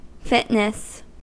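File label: "fitness", US English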